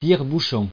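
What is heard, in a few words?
"tire-bouchon", French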